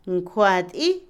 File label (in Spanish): silla, Otomí, mujer; Casa; INALI